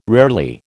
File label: "rarely", American